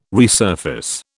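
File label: "resurface", British English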